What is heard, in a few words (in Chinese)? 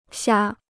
"瞎", Chinese